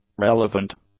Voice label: "relevant", English; adjectives